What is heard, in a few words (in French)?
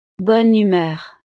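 "bonne humeur", French; estados animo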